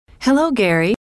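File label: "hello", female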